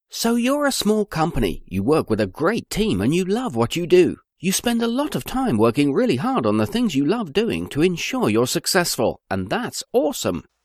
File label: Explainer Videos